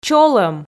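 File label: "чолӧм", Komi, female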